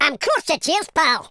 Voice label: boring, Scotsman; speech

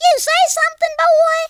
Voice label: "just you wait", Cowgirl; speech

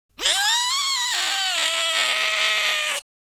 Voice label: scared, American Wrestler

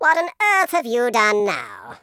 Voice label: "oh dear", Patronising speech

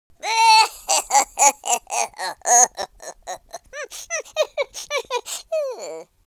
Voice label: happy, speech; Worms3D; Spanish Inquisition

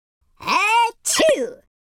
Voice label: idle, super hero speech

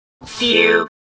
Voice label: relief; Cyberworms

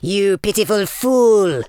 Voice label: stupid, Super Villain, speech

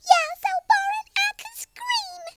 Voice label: boring, Southern Belle; speech

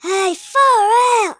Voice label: brilliant, speech, Hippy

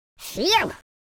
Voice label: relief, Scotsman; speech

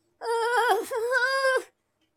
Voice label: scared

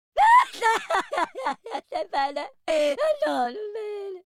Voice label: sad, Italian

